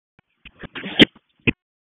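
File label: Public Safety Tactical, p25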